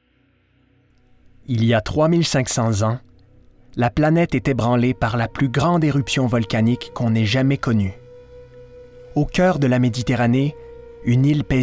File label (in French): documentaire fr normatif narration explicatif adulte medium